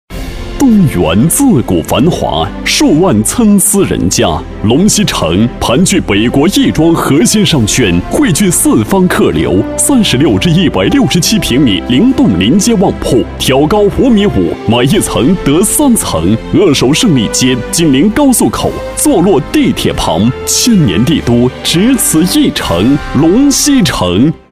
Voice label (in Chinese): 男国 地产广告